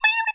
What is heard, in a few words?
teacher noise